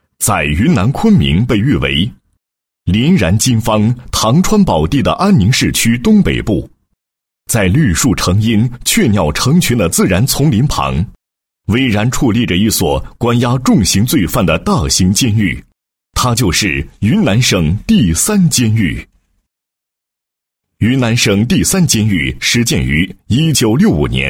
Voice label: 男 工作汇报, 规范化精细化管理汇报

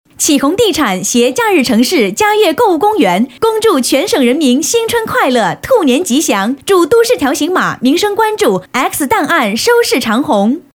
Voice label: 女, 春节贺词; 启鸿地产

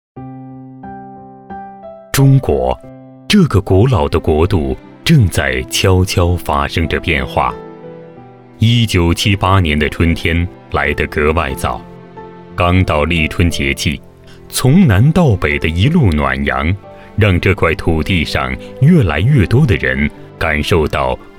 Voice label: 男, 中国房地产, 讲述类

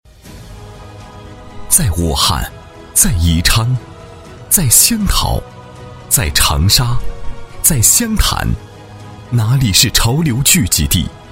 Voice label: 男 企业 宣传介绍; 雪美瑞宣传介绍

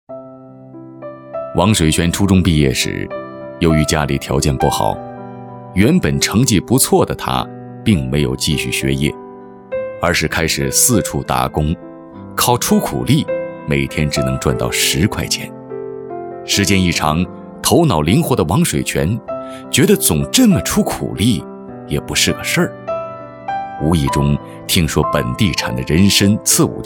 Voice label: 男 人物解说

